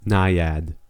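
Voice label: "naiad", English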